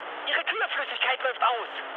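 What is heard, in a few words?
German damaged water mood med, speech; War Thunder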